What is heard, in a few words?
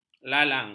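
"lalang", Malay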